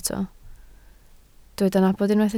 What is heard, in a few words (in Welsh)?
"nabod", Welsh